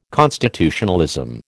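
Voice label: "constitutionalism", American English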